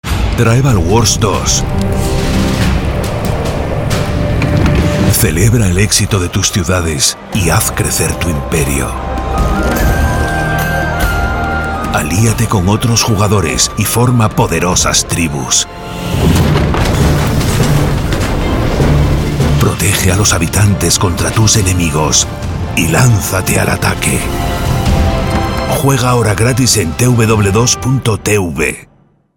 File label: spanish movie trailers